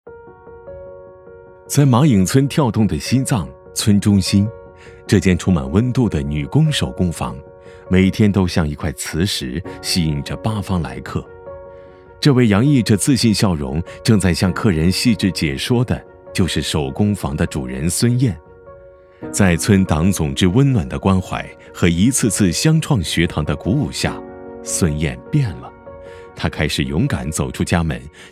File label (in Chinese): 男 纪录 自然讲述; 创客